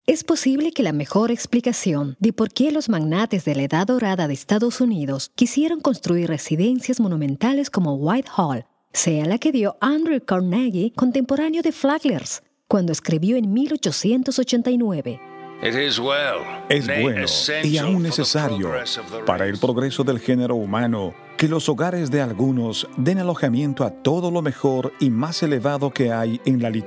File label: Spanish Grand Hall